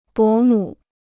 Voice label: "伯母", Chinese